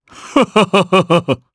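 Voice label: Vox Happy, Japanese; kingsraid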